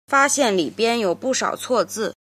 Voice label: "jin", Chinese

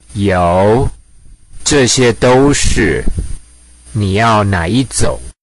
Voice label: Mandarin Conversation

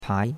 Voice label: "pai2", chinois; Cours de Chinois

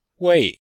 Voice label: "wei4", Chinese

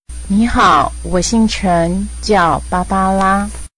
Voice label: Mandarin Conversation

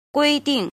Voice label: "jin", Chinese

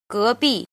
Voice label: "jin", Chinese

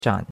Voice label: "zhan3", chinois; Cours-de-Chinois

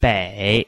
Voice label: "bei3", Chinese

